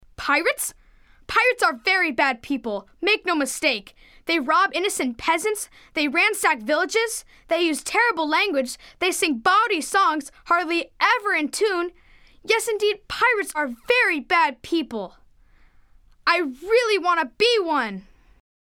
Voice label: Opening Dialog